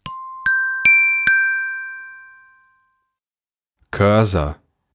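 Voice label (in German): "Cursor", German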